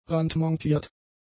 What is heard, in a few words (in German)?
"randmontiert", German; adjectives